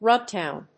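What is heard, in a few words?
"rub down", English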